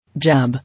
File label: "Jab", English